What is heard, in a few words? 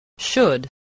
"should", spelling